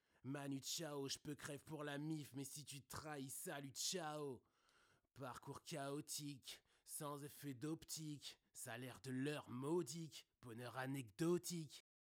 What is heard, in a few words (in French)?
voix rap micro SHURE SM7